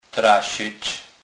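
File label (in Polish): "trasec", kurpie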